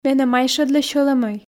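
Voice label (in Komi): менӧ майшӧдлӧ сьӧлӧмӧй, Komi, female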